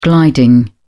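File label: "gliding", British English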